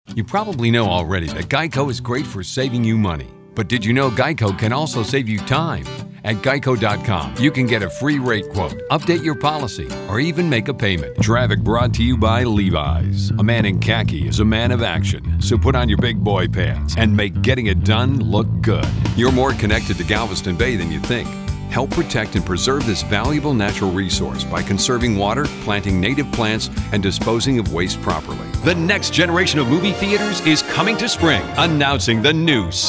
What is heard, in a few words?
Regional Commercial